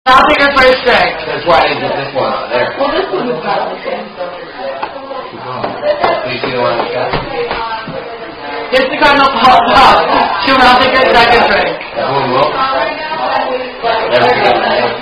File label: Cardinal Puff, Woman Playing Are You A Cardinal; Drinking Game; Cardinal Puff Wins - A Drinking Game You Just Cant Beat